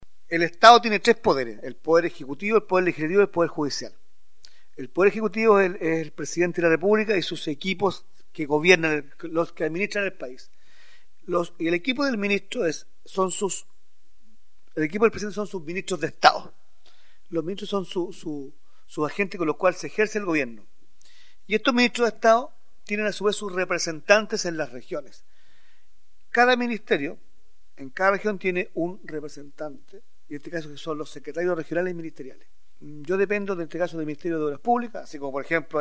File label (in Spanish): Cuña